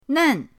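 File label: "nen4", Mandarin Chinese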